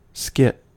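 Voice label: "skit" English, US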